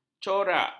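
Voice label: "corak", Malay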